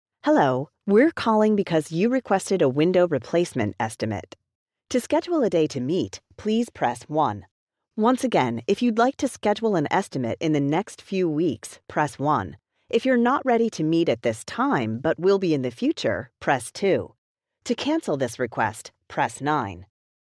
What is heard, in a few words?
Windows IVR